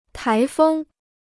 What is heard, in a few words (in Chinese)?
"台风", Chinese